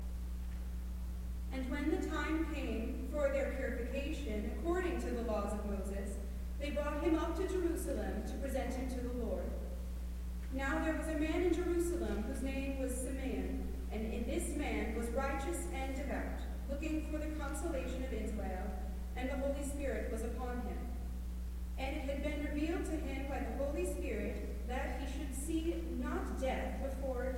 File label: Narration